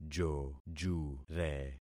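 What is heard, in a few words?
"jojure", concatenated syllables; Affixing experiment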